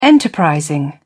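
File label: "enterprising", English, British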